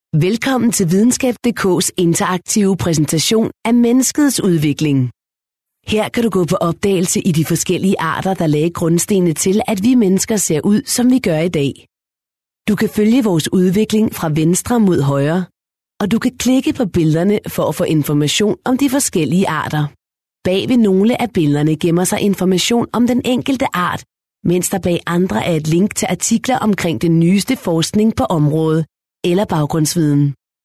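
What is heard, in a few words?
Danish, female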